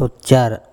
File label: "otcar", andamanese; chest